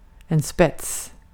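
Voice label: Swedish vocabulary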